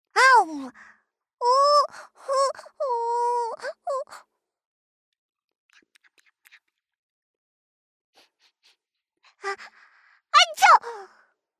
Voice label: 女童

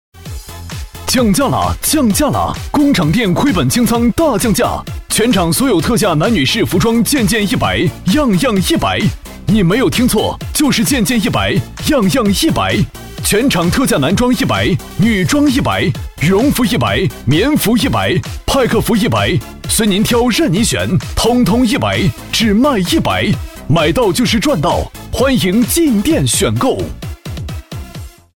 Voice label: 促销降价清仓

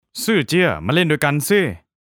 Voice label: 泰语男角色音

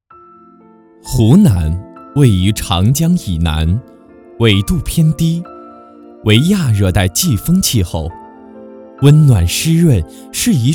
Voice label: 解说词; 湖南解说词

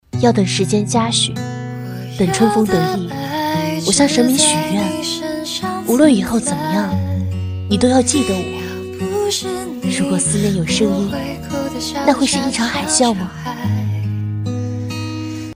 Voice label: 新媒体配音

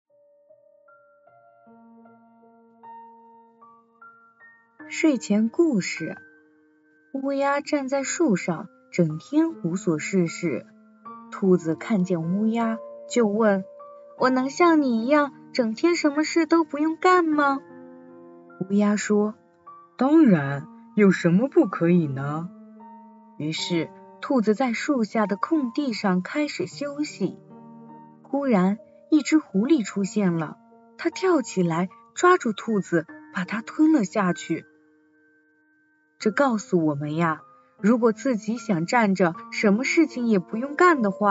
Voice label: 睡前故事

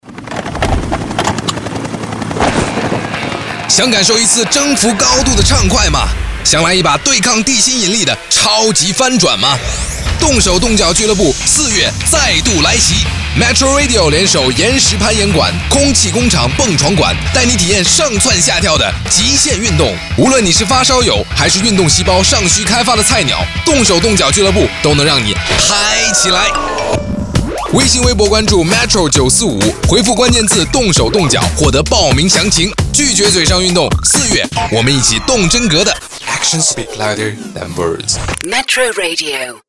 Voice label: 激情

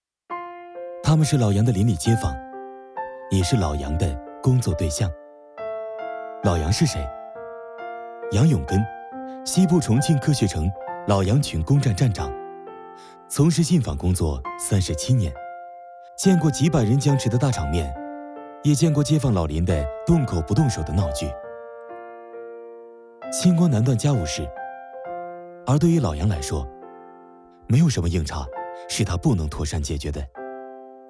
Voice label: 年轻讲述

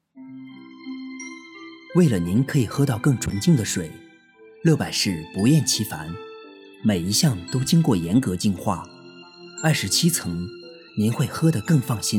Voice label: 品牌 广告 纯净水; 乐百氏

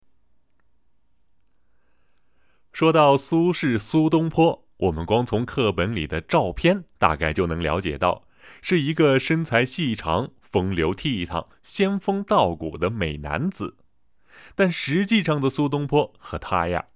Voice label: 趣味历史, 厚重男音, 普通话

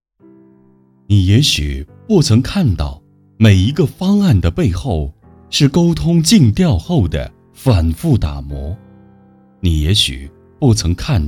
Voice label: 文艺风党建片; 你也许不曾看到

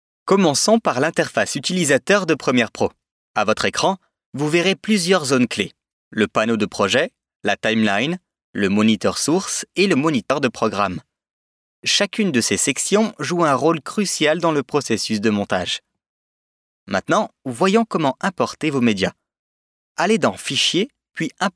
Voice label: 外籍法语男磁性浑厚; 法国; 加拿大

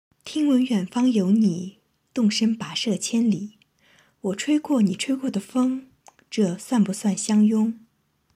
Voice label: 文艺女青年

